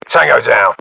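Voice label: inform killfirm infantry, battlechatter, British; localized english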